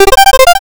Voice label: robo voice